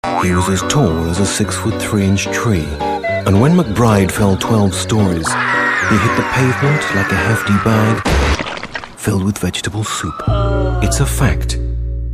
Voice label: concerned